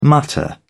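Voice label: "mutter", British English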